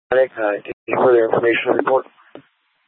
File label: FD Departments VHF 154.800 Patch, scanner